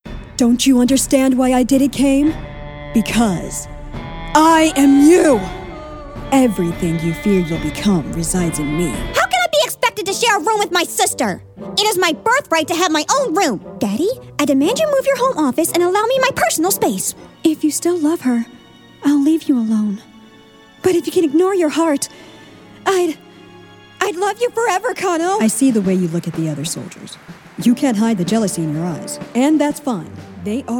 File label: ENGLISH FORMAL